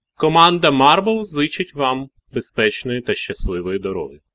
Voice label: Ukrainian